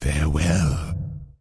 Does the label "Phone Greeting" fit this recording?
No